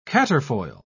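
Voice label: "quatrefoil", British English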